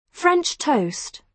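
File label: "french toast", British English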